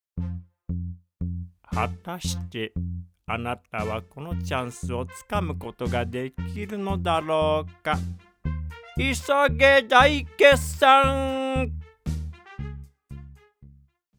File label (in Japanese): ボイスサンプル のナレーションっぽい